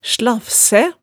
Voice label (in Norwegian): "slafsæ", hemsedalsmaalet